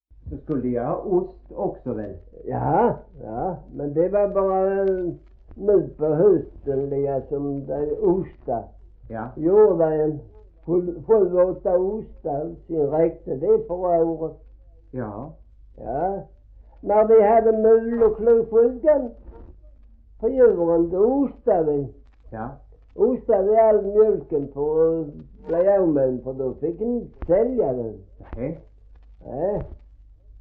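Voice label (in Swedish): Gråmanstorp Skåne, dialekter svenska sagor; Folkminnen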